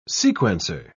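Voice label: "sequencer", British English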